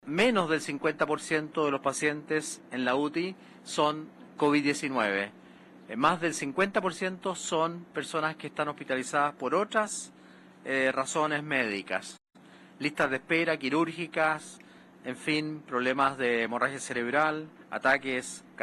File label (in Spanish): cuña